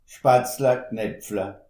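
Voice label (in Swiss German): "spatzle", Alsatian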